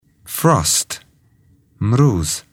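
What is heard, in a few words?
"frost", English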